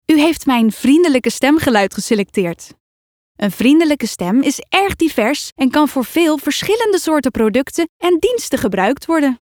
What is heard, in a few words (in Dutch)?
Vriendelijk